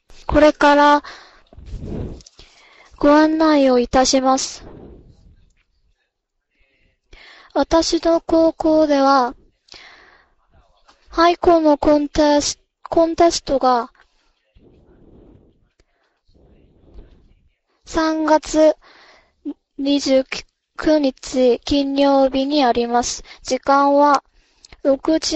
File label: Japanese School Announcement